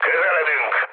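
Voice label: Hungarian near waypoint mood med, speech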